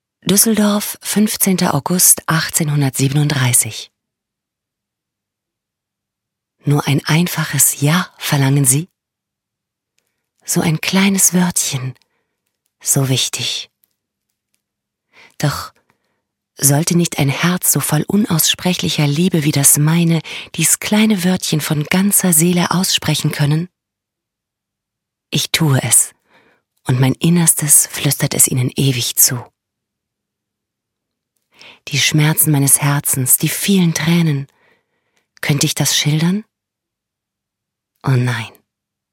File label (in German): Schauspiel Poetisch